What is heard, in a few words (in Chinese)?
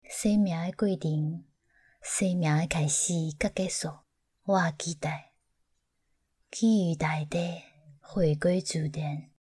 台語旁白樣音